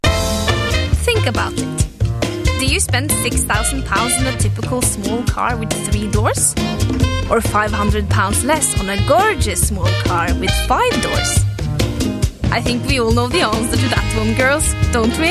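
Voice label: Norwegian, English; Scandinavian, Male, 20s-30s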